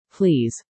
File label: please robot